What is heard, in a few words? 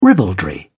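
"ribaldry", British English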